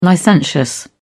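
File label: "licentious", British English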